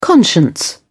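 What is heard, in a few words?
"conscience", British English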